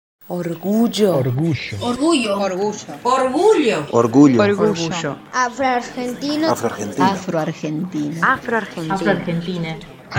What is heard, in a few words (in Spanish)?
RADIO CON cierre inst; Argentina es Afro